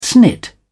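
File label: "snit", British English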